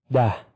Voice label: "dah", Persian

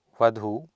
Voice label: "vadhu", Sanskrit

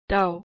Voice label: "daw", Proto-Germanic

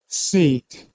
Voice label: "sete", Middle English

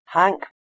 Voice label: "h2enk" PIE; *h₂enk- [ħaŋk]

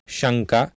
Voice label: "SaNka", Sanskrit

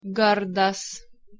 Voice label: "gardas", Lithuanian

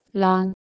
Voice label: "lang", Anglo Saxon

